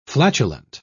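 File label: "flatulent", British English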